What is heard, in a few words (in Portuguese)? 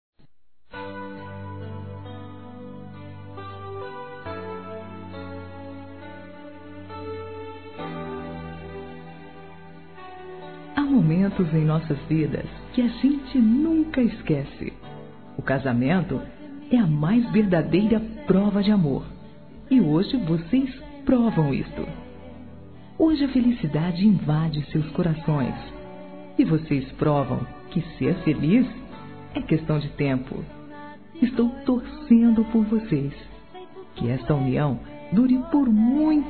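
parabéns casal, feminino; Telemensagens; Parabenização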